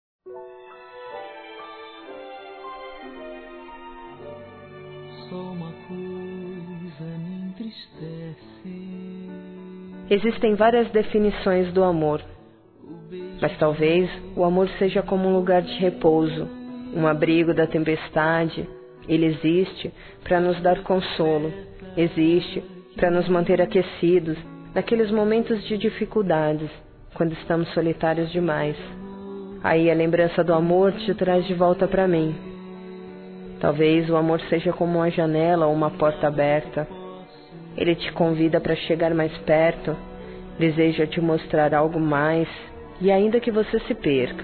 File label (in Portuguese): Romântica Feminino; Dia Dos namorados; Telemensagens 2017